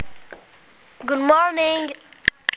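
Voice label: "good morning", English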